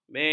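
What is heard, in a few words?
"bena", Malay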